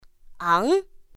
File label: "áng", pinyin; pinyin2; pinyinji